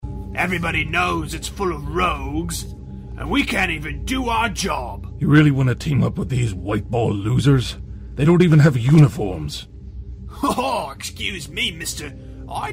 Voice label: funny dialogs